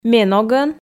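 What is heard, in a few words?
"ме ногӧн", Komi, female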